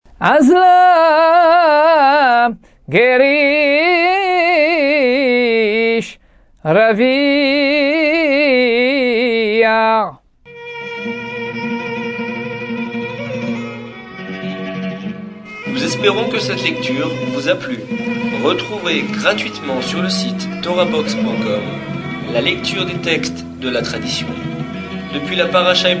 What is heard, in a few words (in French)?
apprentissage taamim selon le rite constantinois